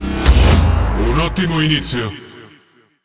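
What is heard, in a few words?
Italian speech First Kill; World Of Warships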